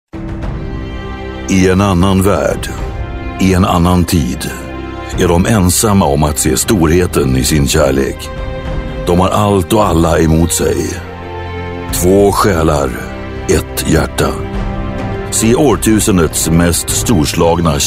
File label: Drama Movie Trailer